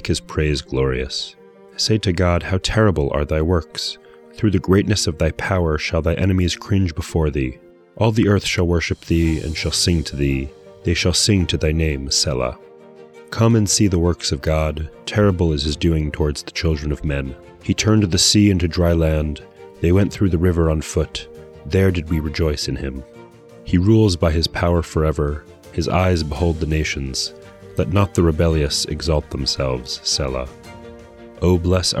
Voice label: poetry and prayer psalm english